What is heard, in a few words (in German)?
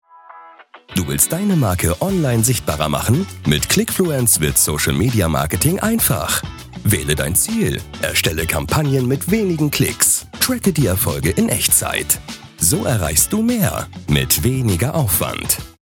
Erklaerfilm dynamisch motivierend; sprecher-demos